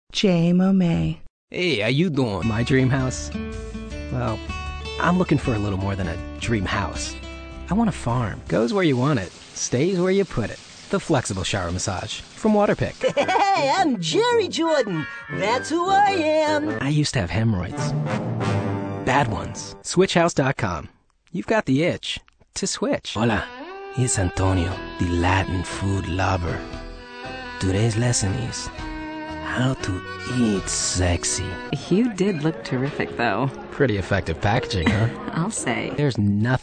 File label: male voice